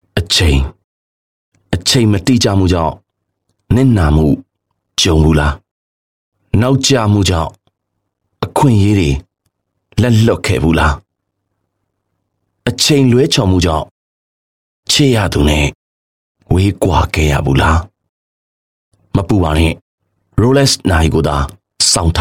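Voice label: m Burmese TVC, male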